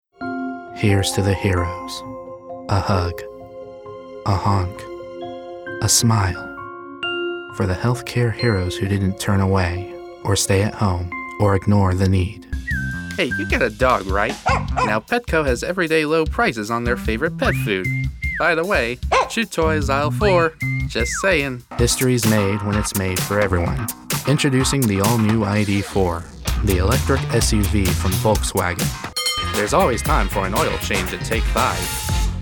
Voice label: Commercial; Actual Commercial Demo; professional voice actor; Houston, TX